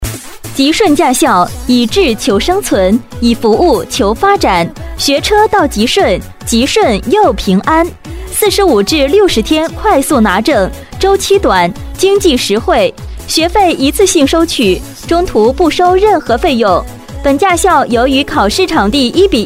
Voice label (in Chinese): 促销 广告; 驾校; 7吉顺驾校